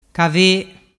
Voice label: "cave", dialettu

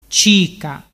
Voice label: "cica", dialettu

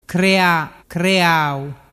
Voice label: "crea creau", dialettu

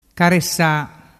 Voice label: "caresa", dialettu

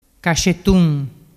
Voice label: "cascettun", dialettu

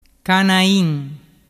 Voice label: "canain", dialettu

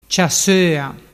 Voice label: "ciassoa", dialettu

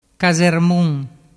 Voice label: "casermun", dialettu

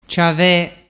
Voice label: "ciave", dialettu

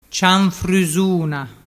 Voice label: "cianfrusuna", dialettu

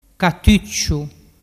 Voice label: "catucciu", dialettu

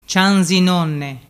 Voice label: "cianzinonne", dialettu

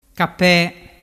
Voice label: "cape", dialettu

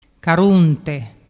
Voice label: "carunte", dialettu

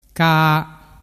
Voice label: "caa", dialettu

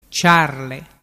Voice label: "ciarle", dialettu

